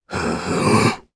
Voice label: Casting, jp; voices heroes jp; Crow; kingsraid